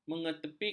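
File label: "mengetepikan", Malay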